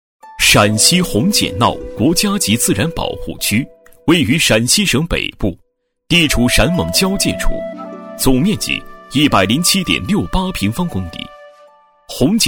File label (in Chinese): 男 纪录片 大气硬朗, 大气浑厚; 红碱淖; 男1026-纪录片 红碱淖【大气硬朗】